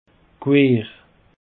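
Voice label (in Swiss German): "quer", Alsatian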